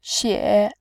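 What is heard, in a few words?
"xie", Mandarin Chinese, tone 3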